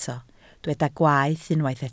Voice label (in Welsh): "gwaeth" Welsh